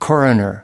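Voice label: "coroner", US English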